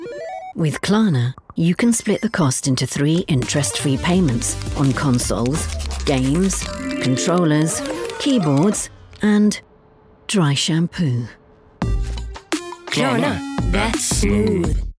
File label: female English commercial; Klarna